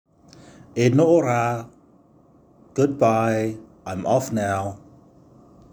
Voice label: Goodbye I'm off now, Cook Islands Language; CILW 2022; Cook Islands Language Week; 2022 Pacific Language Weeks